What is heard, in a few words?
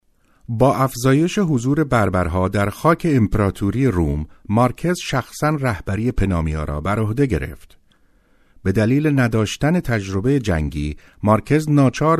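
Farsi Male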